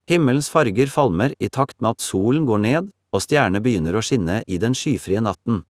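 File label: Norwegian Bokmål, Norway; iOS 26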